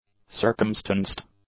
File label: "circumstanced", English; adjectives